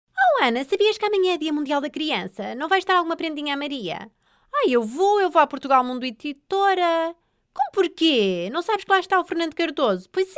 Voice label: female portuguese voice over character, portuguese portugal